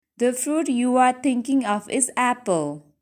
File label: apple result, english; can you guess